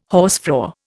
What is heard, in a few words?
"hausfrau", Indian